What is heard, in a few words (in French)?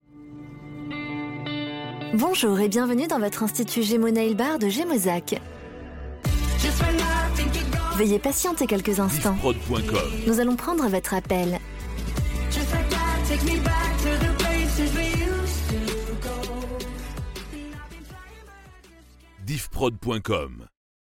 Nail Bar Attente Court, telephonie svi; Gemo Gemozac